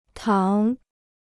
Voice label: "糖", Chinese

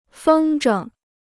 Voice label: "风筝", Chinese

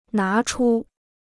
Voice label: "拿出", Chinese

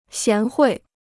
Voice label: "贤惠", Chinese

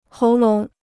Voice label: "喉咙", Chinese

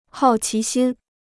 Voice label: "好奇心", Chinese

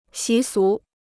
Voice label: "习俗", Chinese

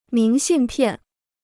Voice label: "明信片", Chinese

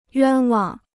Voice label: "冤枉", Chinese

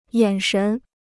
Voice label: "眼神", Chinese

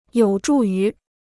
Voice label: "有助于", Chinese

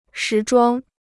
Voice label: "时装", Chinese

